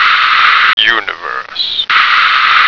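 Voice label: "universe", American English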